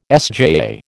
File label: "SJAA", American